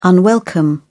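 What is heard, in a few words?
"unwelcome", English, British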